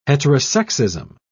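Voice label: "heterosexism", English, British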